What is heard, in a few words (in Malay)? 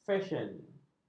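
"fesyen", Malay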